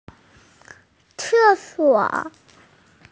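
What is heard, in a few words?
"ce4suo3", Mandarin Chinese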